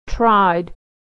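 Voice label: "tried", American English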